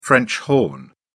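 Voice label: "french horn", British English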